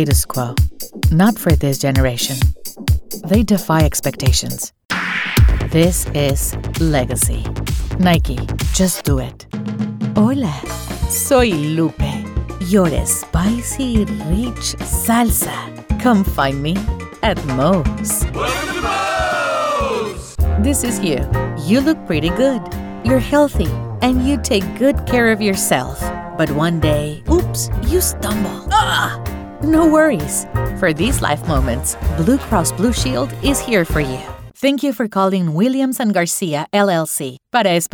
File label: english others